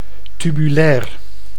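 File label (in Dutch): "tubulair", Dutch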